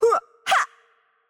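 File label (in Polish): Change Player, Polish; bloom minigame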